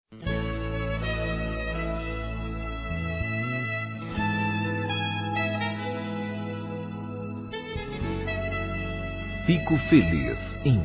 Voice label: PROSPERIDADE PARA ALGUÉM, Telemensagens, Gospel, masc

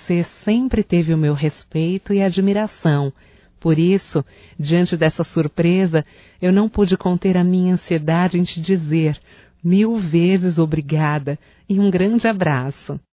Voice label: AGRADECIMENTO PELO PRESENTE, fem, Só Voz; Telemensagens; AGRADECIMENTOS

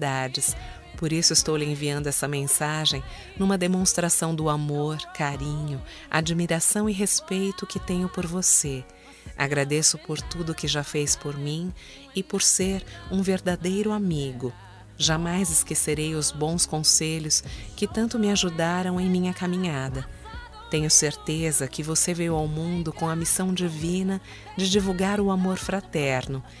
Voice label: ANIV TIO (F); Telemensagens 2017; Aniversários; Aniv Familiar

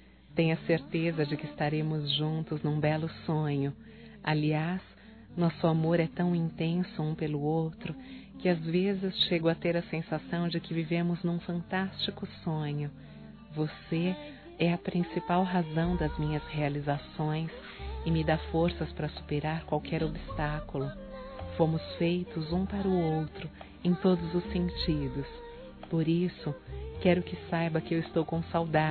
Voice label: BOA NOITE ROMANTICO, fem; Bom dia boa tarde boa noite

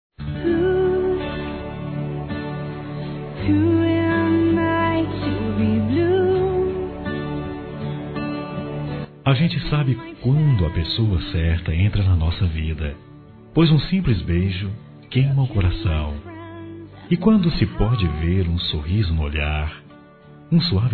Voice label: Primeira Vez Masculino

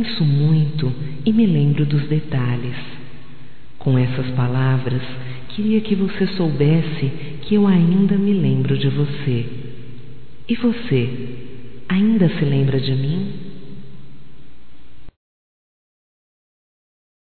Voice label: Amor do Passado Feminino, Telemensagens, Só Voz; Telemensagens 2017

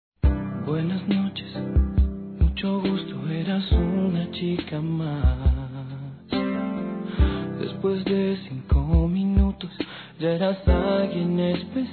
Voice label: ANIV. GERAL F; Aniversários; Aniversários diversos; fem

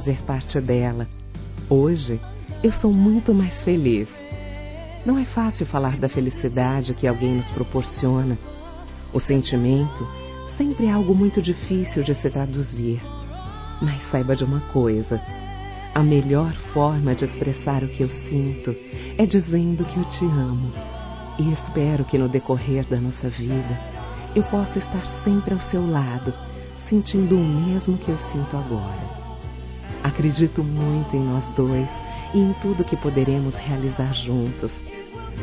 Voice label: Romantica Aniversário Namoro Feminino; Telemensagens; Românticas

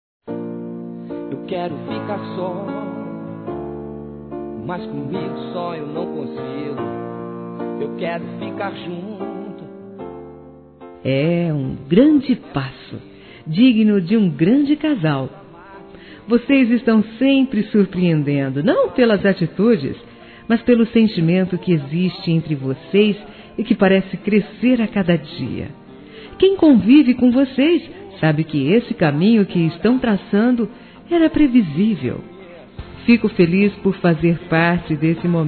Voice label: Felicidade Noivado Feminino; Telemensagens; Telemensagens 2017

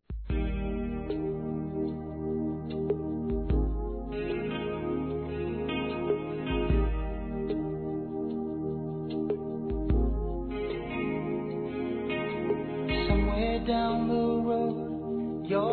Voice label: PAI, Telemensagens

